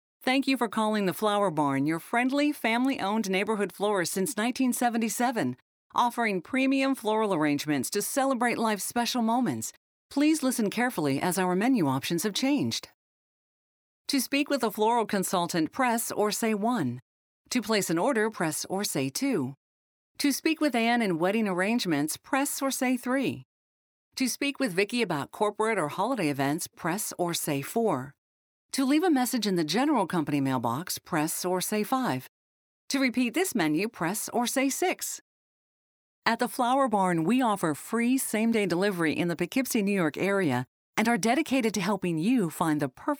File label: TELEPHONY